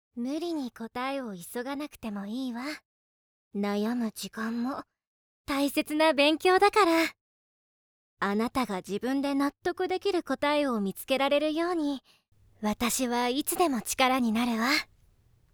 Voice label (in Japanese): 高校保健室の先生 寄り添う 30歳; jtb-ent-voice